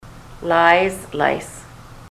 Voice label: lies lice, ontario

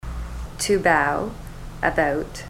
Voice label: to bow about, ontario

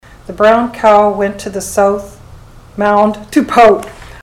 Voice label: the brown cow, ontario; mouth set

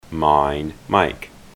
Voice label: mine, ontario

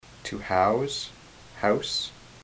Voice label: to house house, ontario

